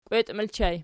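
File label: "kweti" ergative plural, Archi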